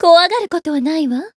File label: Voice chant; toova